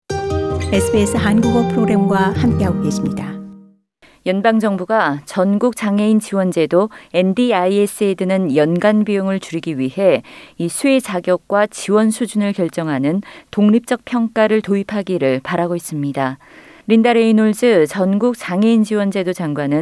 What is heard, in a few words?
korean; 호주 정부, NDIS ‘너무 고비용’…대대적 개정 착수; SBS Korean